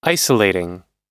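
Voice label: "isolating", American English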